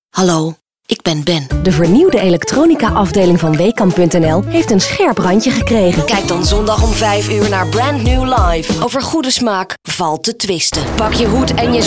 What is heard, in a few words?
voice demo